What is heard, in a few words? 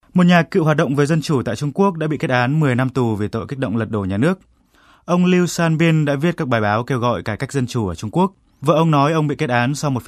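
Vietnamese, foreign voices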